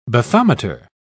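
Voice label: "bathometer", British English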